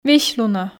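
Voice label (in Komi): "весьлунӧ", Komi, female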